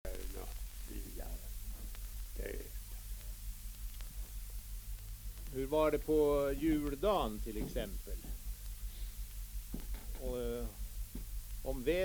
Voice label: Svenska sagor, dialekter; Folkminnen

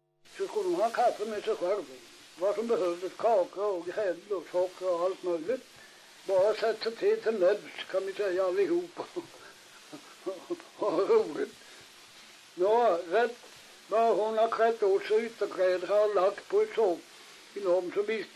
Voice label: dialekter; Folkminnen; Svenska sagor

